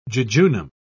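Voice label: "jejunum", British English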